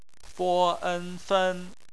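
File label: "fen" bopomofo, Chinese; phonetics; bpmf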